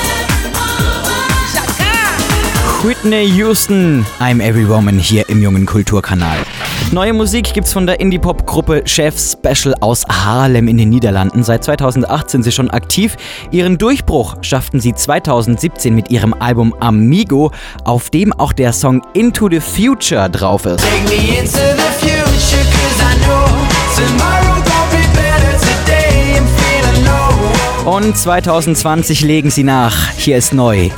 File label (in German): Musikmoderation